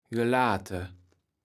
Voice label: "gelate", Kaevels Platt